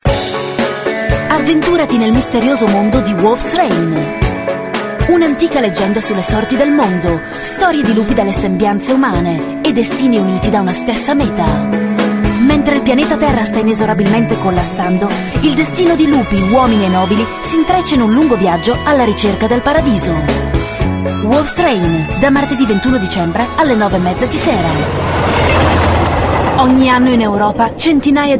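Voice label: Italian, female, commercial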